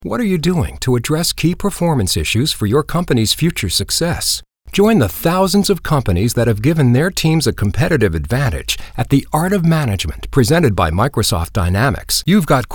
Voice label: Corporate, Mens